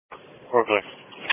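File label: Police Patrol Dispatch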